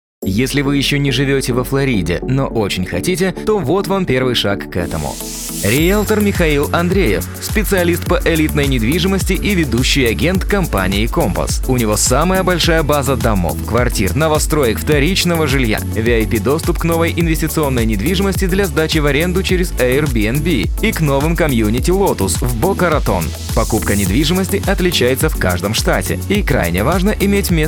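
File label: Russian, male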